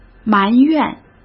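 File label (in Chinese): "埋怨", Mandarin Chinese; hsk; chinese-flashcards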